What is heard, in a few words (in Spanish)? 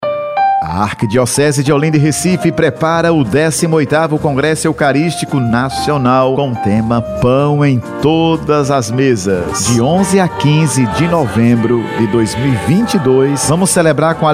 Spot 30 segundos